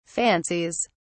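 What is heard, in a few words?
"fancies", British English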